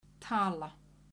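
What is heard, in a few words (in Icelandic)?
"tala", Icelandic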